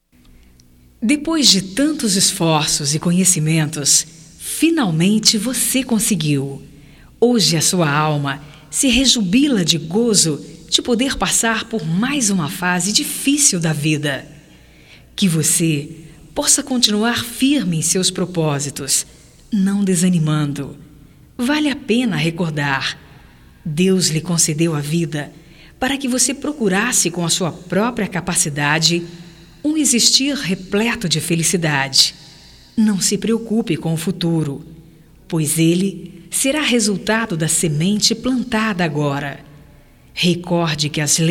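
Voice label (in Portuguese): APROVADO NO VESTIBULAR - female, Telemensagens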